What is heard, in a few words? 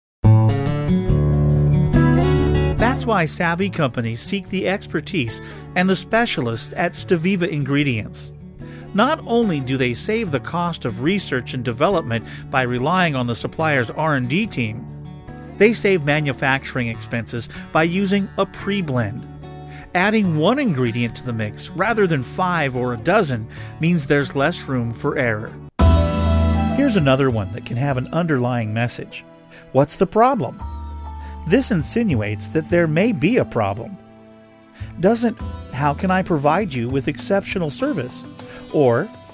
Narration